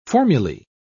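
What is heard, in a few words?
"formulae", English, British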